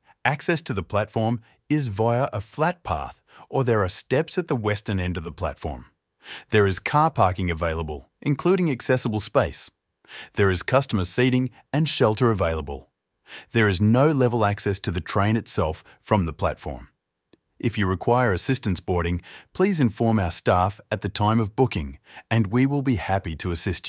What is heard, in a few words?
Chinchilla Railway Station, Station map audio description; TRAX; Overview Address Chinchilla Street